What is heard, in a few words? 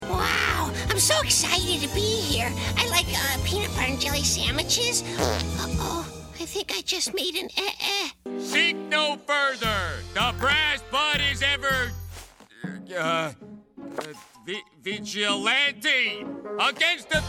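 animation, men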